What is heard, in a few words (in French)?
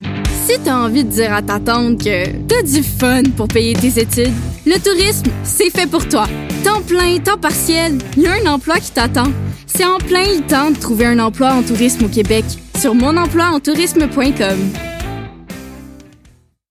Demo vocal Emploi en Tourisme